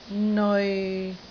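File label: "neoi6", Cantonese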